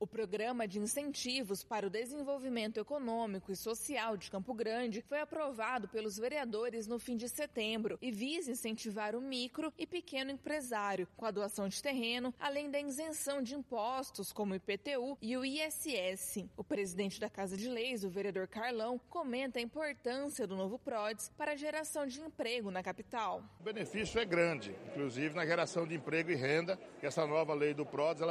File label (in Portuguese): spot solene radio camara noticias; prodes